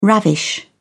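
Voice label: "ravish", British English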